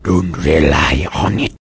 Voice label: answer, vort speech; hl2